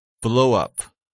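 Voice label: "blowup", English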